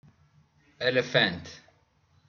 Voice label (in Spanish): elefante, ingles